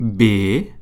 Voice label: French alphabet b